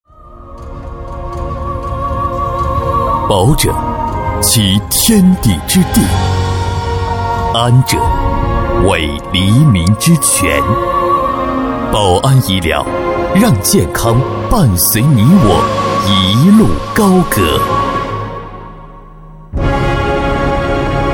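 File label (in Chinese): 男 专题（深沉大气）; 医疗; 保安医疗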